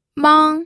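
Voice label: "mang", first tone, pinyin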